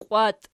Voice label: "qiwat", Archi